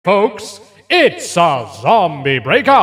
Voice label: announcer infection round start; open fortress